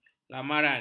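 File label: "lamaran", Malay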